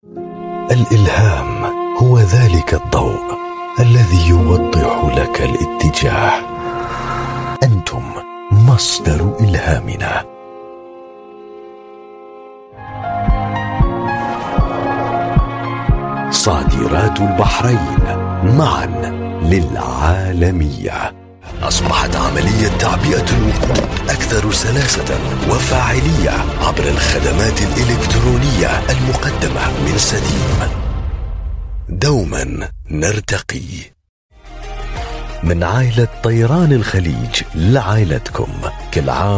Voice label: Arabic Voice

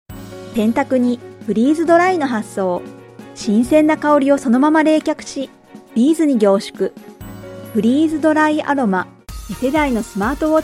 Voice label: Japanese, Female

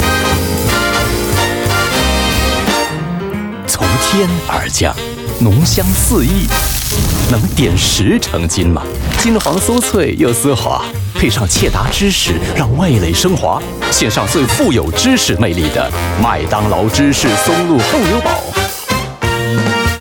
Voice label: Mandarin Cheeky Sale; Macdonald

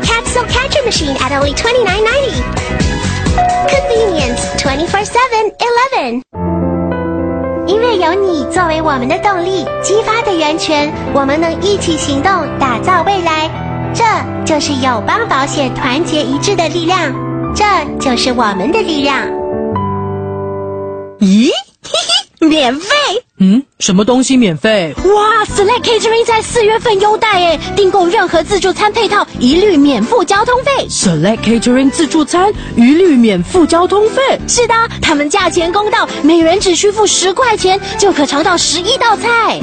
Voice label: Asian English kids character

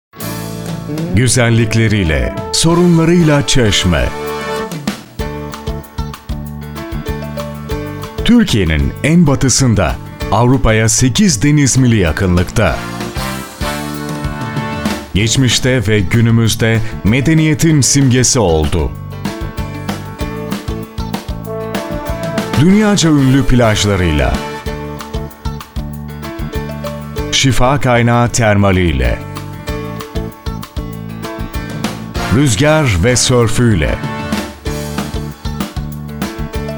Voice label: Turkish Male; Tourism Ministry

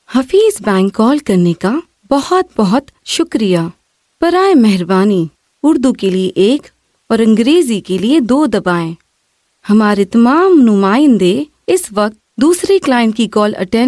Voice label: IVR Urdu F; India

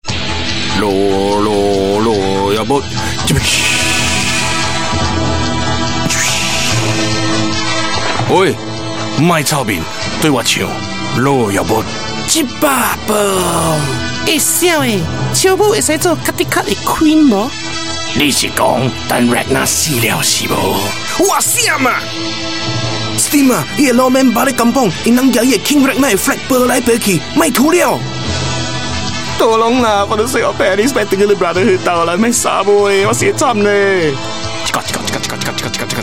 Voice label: Hokkien, Hokkien Male; Vikings

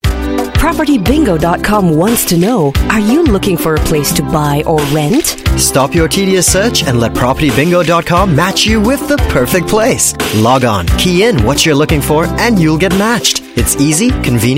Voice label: English Singaporean Male; PropertyBingo

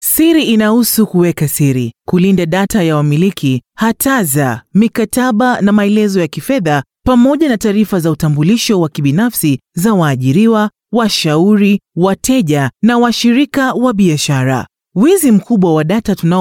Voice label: Swahili E learning; Data Privacy

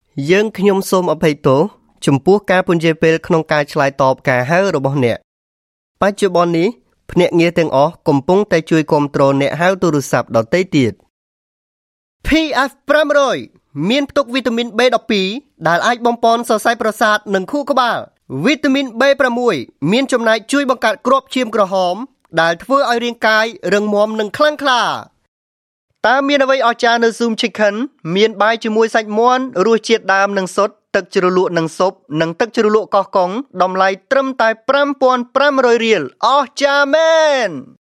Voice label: Khmer Male

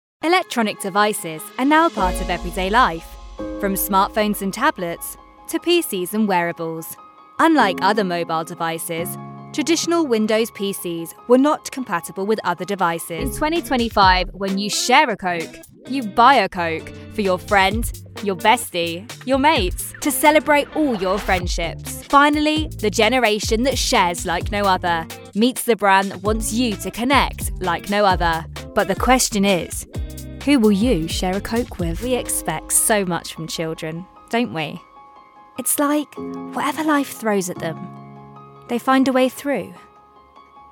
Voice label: UK English Corporate

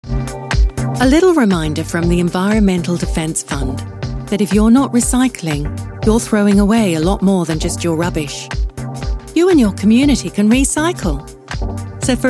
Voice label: UK English Recycling

